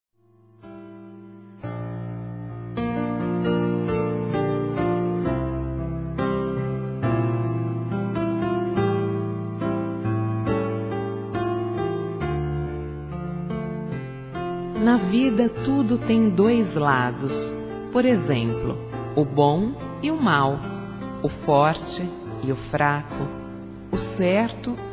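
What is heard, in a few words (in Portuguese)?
Pela indecisão, Desculpas, Telemensagens, female; Telemensagens 2017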